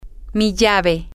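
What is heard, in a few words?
my key, Spanish, female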